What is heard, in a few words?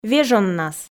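"вежоннас", Komi, female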